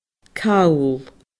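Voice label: "call", Scottish Gaelic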